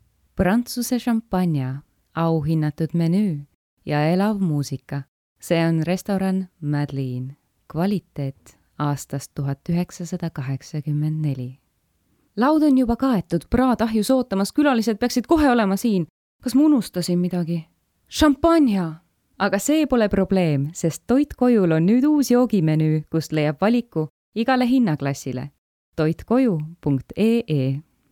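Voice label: voice reel Estonian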